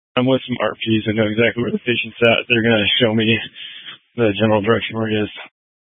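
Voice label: Incident Tactical, scanner audio recording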